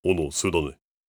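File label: question short, voice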